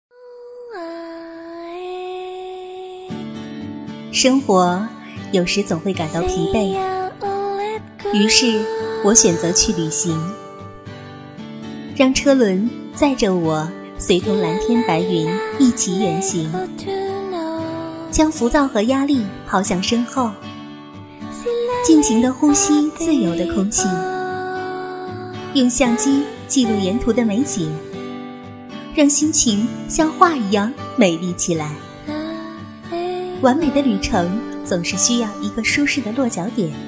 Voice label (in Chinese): girl hotel, guanggao; Chinese; wenxintianbi